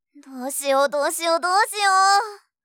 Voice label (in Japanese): どうしようどうしようどうしよう…！, 相づち・反応系【ネガティブ】; ASMR